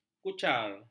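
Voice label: "kucar", Malay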